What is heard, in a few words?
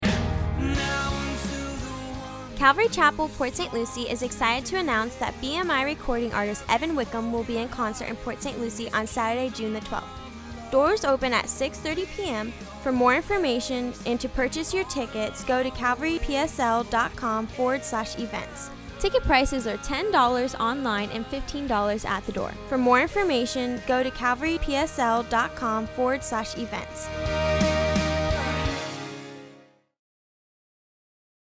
Radio Ad